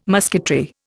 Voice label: "musketry", Indian